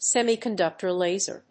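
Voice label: "semi conductor laser", English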